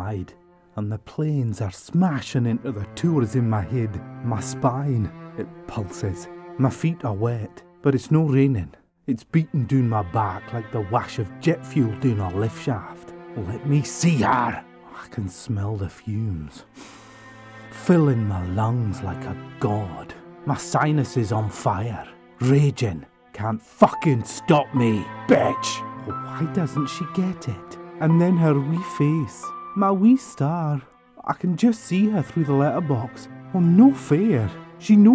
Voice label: Dramatic